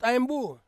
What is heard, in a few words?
"aembu", Ansus; knee